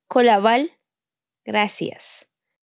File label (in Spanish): Palabras en tsotsil, Kolaval - Gracias